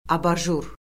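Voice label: "abajur", Azerbaijani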